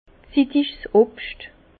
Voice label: "zittigs", Bas Rhin; fruits mûrs; vin

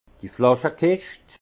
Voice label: "flasche kischt", alsace; vin; caisse à bouteilles

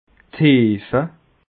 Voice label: "heefe", alsace

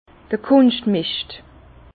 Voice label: "kunschtmischt", Bas Rhin; vin; engrais chimiques

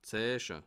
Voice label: "zeichne", alsace

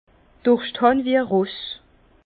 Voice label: "durschthan", Bas Rhin; vin

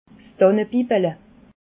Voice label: "tannebibele", alsace

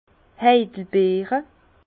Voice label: "heidelbeere", Bas Rhin; vin; myrtille

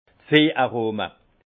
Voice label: "veh arome", alsace; vin; arômes animales

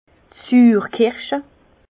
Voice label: "suurkirsche", Bas Rhin; vin; griotte

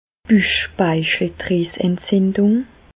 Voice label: "buchspeicheldrieseentzindung", Bas Rhin; medecine; pancréatite

